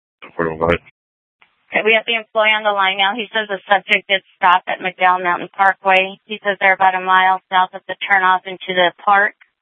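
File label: Sheriff, Parks, Sheriff radio scanner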